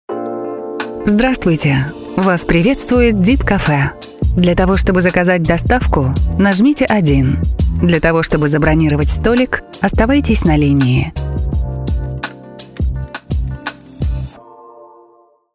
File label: ivr